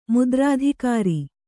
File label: "ಮುದ್ರಾಧಿಕಾರಿ", Kannada